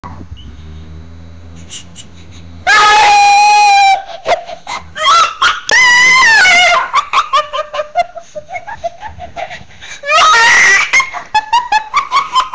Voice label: a 23 year old man, play